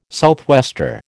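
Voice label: "southwester", American English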